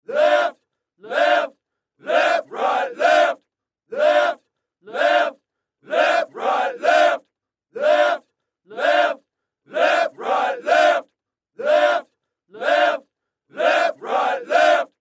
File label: Left Left Left Right Left, patriotic military